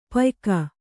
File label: "ಪಯ್ಕ", kannada